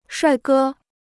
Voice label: "帅哥", Chinese

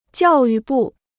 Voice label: "教育部", Chinese

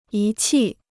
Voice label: "仪器", Chinese

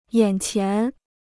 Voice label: "眼前", Chinese

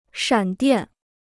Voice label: "闪电", Chinese

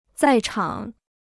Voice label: "在场", Chinese

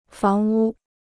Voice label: "房屋", Chinese

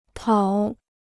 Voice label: "讨", Chinese

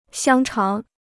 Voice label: "香肠", Chinese

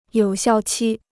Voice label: "有效期", Chinese

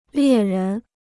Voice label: "猎人", Chinese

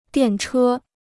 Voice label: "电车", Chinese

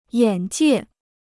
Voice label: "眼界", Chinese

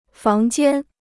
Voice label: "房间", Chinese

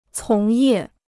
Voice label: "从业", Chinese

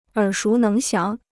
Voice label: "耳熟能详", Chinese

